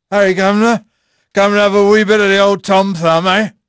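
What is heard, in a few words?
English male; Pirates Of The Caribbean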